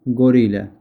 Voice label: Gorilla spanish